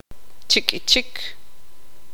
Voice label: tzutujil; delgado objetos cilindricos

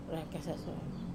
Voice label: "rau kasasor", larynx, wamesa

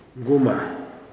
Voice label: "nose", Kuman

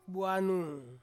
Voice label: "anung", to plait, Ansus

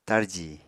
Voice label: "tarji", apatani

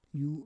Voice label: "yu", Roon

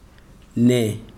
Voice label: "no", Chikunda

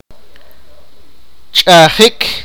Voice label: sipakapense; wash

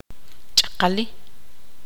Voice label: tzutujil; mojado

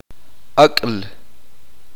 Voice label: uspanteko; ni-o

